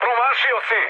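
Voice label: Serbian bomb failure mood med, speech; War Thunder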